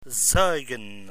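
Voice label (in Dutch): "zuigen" Dutch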